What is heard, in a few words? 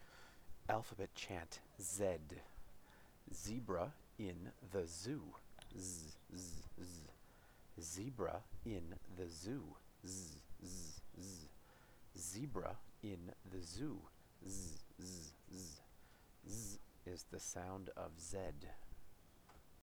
z chant